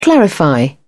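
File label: "clarify", British English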